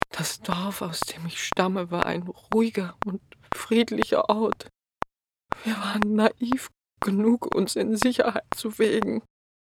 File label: Sad